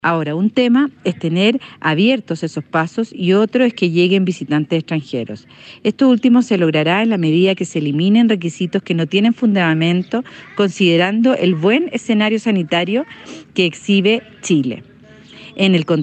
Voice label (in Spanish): CUÑA; FEDETUR